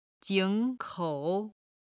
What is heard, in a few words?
"jing3kou3", chinese